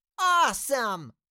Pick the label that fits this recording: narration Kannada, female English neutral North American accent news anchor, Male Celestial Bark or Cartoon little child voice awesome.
Cartoon little child voice awesome